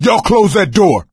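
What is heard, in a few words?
close the door, male; Left 4 Dead 2; coach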